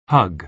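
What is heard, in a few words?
"hug", American English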